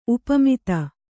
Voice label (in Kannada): "ಉಪಮಿತ", Kannada; upamita